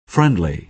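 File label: "friendly", American English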